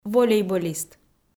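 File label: "волейболист", Komi, female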